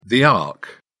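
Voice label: "ark", British English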